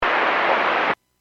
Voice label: Airports 122.7, Radio; KSTE